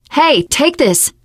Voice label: alert give item, teen girl, survivor voice

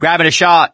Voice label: adrenaline, survivor voice; source engine; left 4 dead 2

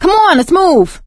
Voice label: emphatic go, survivor voice; source engine; left 4 dead 2; producer

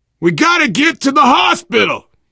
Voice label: world hospital, player survivor voice biker; source engine; left 4 dead 2

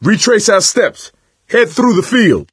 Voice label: misc directional, coach, survivor voice

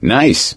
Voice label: nice job, gambler, survivor voice

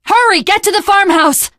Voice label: teengirl, voice; Left 4 Dead 2; Source Engine; video games

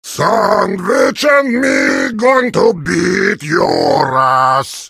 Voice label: heavy sandwich taunt, vo

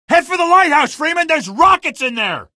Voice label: lite rockets, male; Source Engine; video game; coast; barn

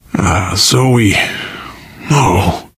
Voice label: grief teen girl, survivor voice

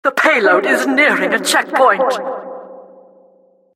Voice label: announcer cart attacker warning

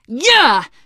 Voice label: nice job, teengirl; survivor voice

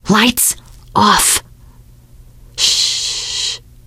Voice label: kill that light, teengirl, voice; source engine; left 4 dead 2; survivor

